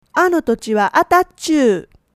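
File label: "atatchuu", Japanese dialect, woman; hogen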